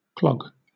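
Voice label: "clog", British English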